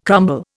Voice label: "crumble", Indian